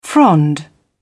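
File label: "frond", British English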